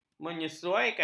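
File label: "menyesuaikan", Malay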